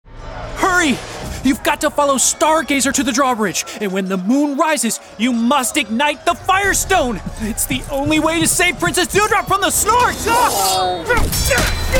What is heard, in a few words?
character